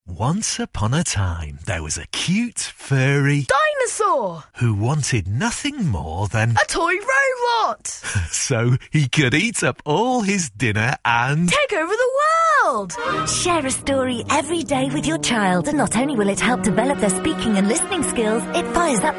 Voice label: radio advert; Raising Readers; Heart FM